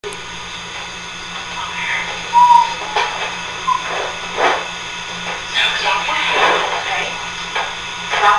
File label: whispering; Whispering 3